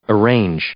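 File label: "arrange", English; verb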